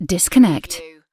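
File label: "disconnect", British female; lynne publishing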